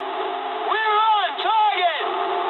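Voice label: English near waypoint mood medium; War Thunder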